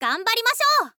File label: "ganbarimasyou", info girl voice